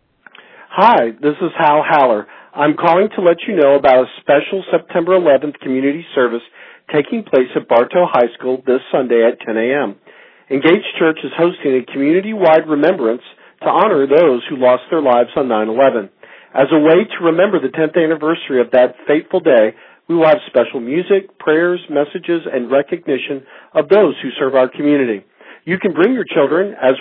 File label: voicemail